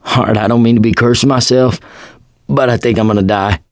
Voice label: going to die, survivor voice mechanic

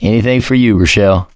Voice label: you are welcome, voice, mechanic; survivor; producer

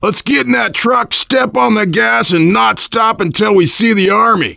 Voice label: directional, voice, biker

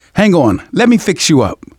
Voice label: heal other, manager, survivor, voice; Left 4 Dead 2; left4dead2 dlc2

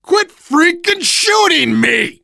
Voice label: friendly fire, voice, biker

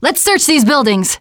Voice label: searching, teengirl

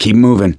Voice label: emphatic go quiet, survivor voice; gambler

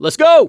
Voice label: emphatic go, voice, mechanic

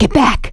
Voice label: backup quiet, survivor voice; producer

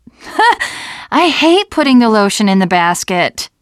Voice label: second saferoom, teengirl, voice

